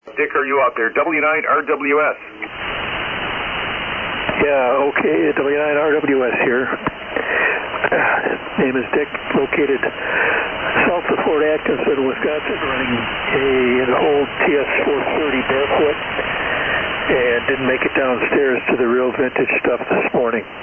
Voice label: Kenwood TS-430; Fort Atkinson,Wi.